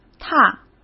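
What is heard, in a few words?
"踏" Mandarin Chinese; chinese flashcards; hsk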